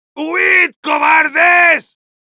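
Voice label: battlecry, Spanish; "¡Huir cobardes!"; Heavy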